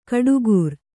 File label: "ಗುಟ್ಟುಗಾರ", Kannada